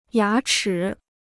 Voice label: "牙齿", Chinese

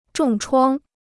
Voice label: "重创", Chinese

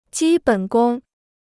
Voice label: "基本功", Chinese

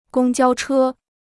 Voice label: "公交车", Chinese

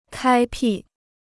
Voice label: "开辟", Chinese

